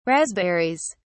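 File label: "raspberries", British English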